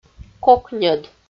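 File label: "кокньӧд", Komi, female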